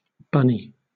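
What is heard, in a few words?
"bunny", British English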